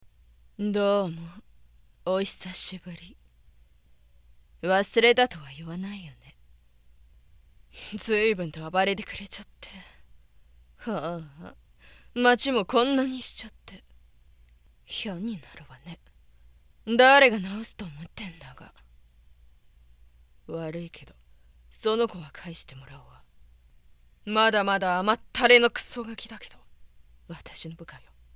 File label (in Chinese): 外籍日语女 角色 严厉姐系